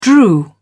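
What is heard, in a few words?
"drew", British English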